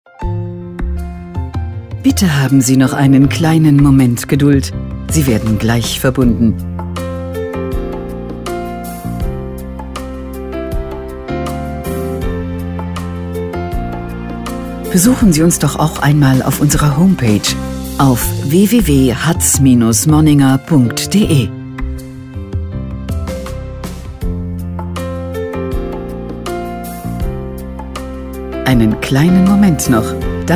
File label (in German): Schleife, Telefonansage Brauerei; Hatz